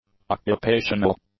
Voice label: "occupational", english; adjectives